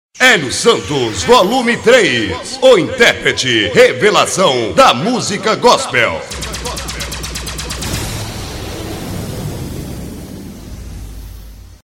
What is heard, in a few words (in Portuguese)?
vinheta